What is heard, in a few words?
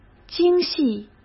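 "精细", Mandarin Chinese; chinese flashcards; hsk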